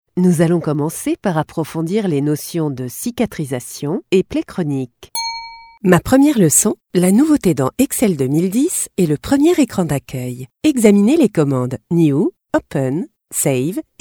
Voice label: E-LEARNING